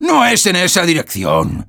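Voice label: Sniper cart going back offense soft, Spanish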